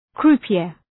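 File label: "croupier", English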